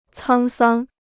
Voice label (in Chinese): "沧桑", Chinese